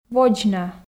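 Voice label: "водз на", Komi, female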